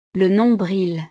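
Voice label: "le nombril", French; partes del cuerpo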